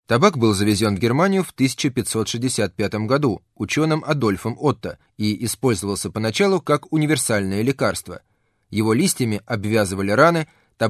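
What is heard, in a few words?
Russian, male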